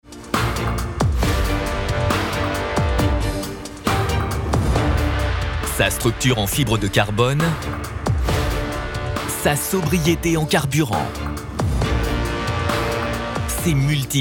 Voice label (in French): efficace droit, voix off homme documentaire; Airbus